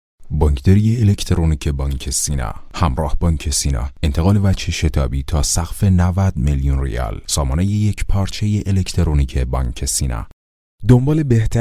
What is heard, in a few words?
Persian-Farsi male